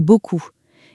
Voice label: "beaucoup", french

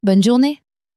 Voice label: "bonne journee", french; flashcards

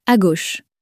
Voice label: "a gauche", french; flashcards

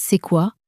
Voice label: "c est quoi", French

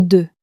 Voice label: "de", French

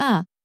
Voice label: "a", french

